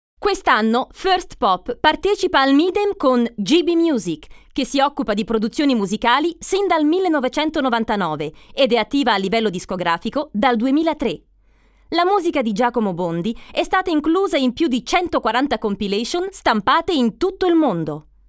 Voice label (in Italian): ITALIANO DINAMICA